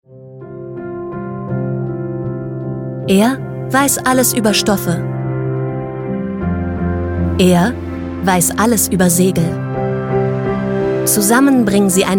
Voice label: TV Spot; Wir Zusammen; coastworxx

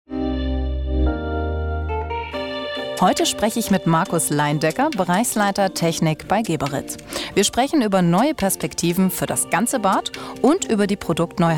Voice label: Podcast; Geberit

